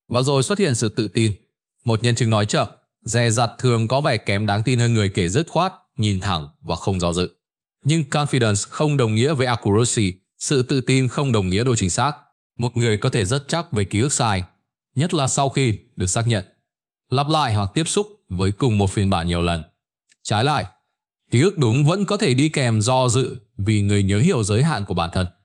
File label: narration; Vi Sao Nao Bo Khien Ban Nho Nhung Dieu Chua Tung Xay Ra; StickerMan